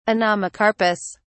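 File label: "anomocarpous", British English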